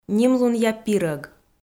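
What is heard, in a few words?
"нимлунъя пирӧг", Komi-Zyrian, female; kpv audio dict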